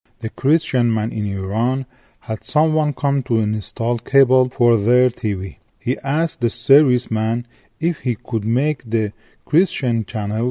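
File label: English Farsi Accent Elearning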